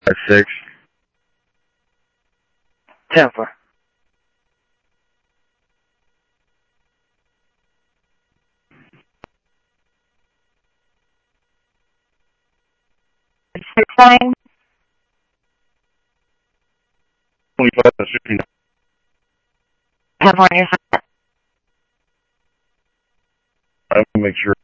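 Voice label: Sheriff Lake Patrol, scanner audio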